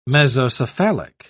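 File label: "mesocephalic", British English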